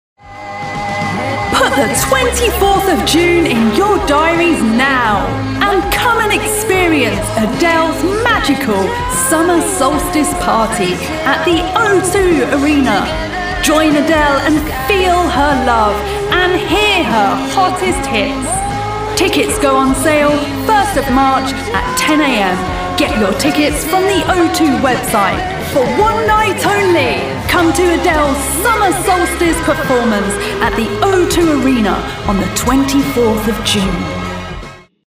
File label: Ad